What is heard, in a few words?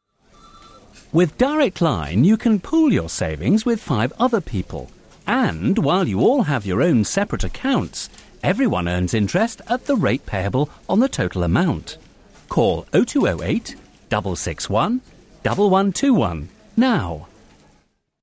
Advert; Direct Line